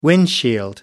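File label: "windshield", British English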